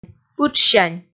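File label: "пытшсянь", Komi, female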